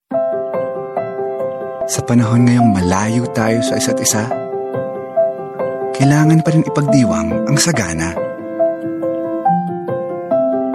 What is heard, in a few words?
Tagalog; Lessandra Homes; Bastat May Bintana